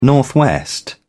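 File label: "northwest", British English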